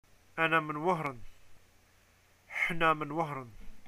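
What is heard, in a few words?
Algerian i am from, arabic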